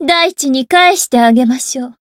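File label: Voice variable chant, Japanese; toova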